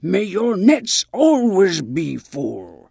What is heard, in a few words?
Tuskarr Female Farewell; Magic User